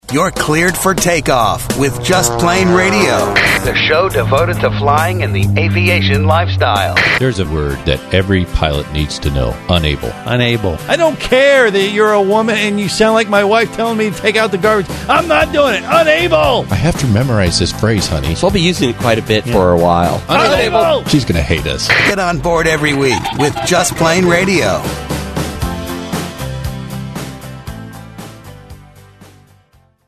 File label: Promo